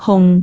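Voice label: "hung4", Cantonese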